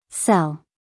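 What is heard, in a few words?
"sell", American English female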